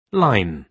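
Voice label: "line", British English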